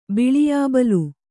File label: "ಬಿಳಿಯಾಬಲ್", Kannada